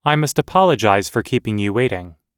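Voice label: everyday apologizing, American English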